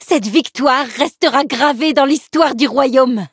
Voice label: victory, fr; cookierunwiki

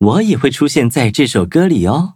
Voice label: upgrade, zh-hant; Cookie Run

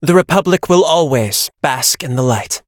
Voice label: touch, en; cookierunwiki

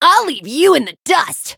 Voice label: battle en

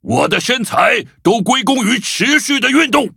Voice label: levelup zh-hant; Cookie Run

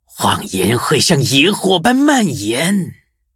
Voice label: levelup zh-hant; Cookie Run

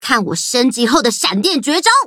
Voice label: levelup zh-hant; cookie run

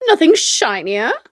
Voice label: touch, en; cookie run

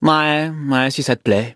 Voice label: upgrade, fr; cookie run

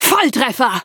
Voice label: skill, de; cookie run

